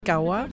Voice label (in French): "Kawa", prononciation